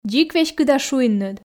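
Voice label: "дзик веськыда шуинныд", Komi, female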